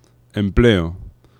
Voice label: "empleo", nasals; laboratori fonetica

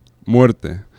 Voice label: "muerte", vibrants; laboratori fonetica

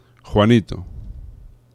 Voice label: "Juanito", nasals; laboratori fonetica

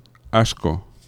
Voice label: "asco", fricatives; laboratori fonetica

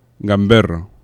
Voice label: "gamberro", vibrants; laboratori fonetica

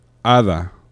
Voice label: "hada", aproximants; laboratori fonetica

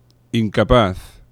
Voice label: "incapaz", nasals; laboratori fonetica

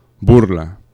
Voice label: "burla", vibrants; laboratori fonetica

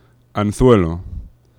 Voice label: "anzuelo", nasals; laboratori fonetica

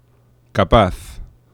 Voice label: "capaz", fricatives; laboratori fonetica

